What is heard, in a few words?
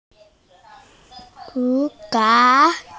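"yu3san3", Mandarin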